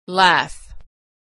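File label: "laugh", American English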